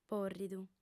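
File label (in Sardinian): "pòrridu", Sardinian, female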